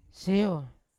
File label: "seyo" go up, Yawa